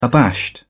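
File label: "abashed", British English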